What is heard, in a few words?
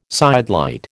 "sidelight", American